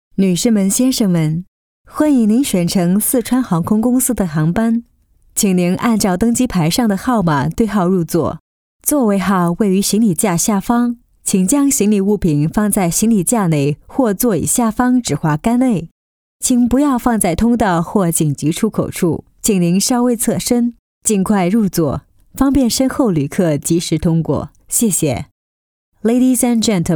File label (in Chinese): 英女 航空机内中英广播